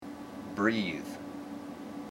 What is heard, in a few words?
"breath", IPA